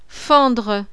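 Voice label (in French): "fendre", français; SE FENDRE LA POIRE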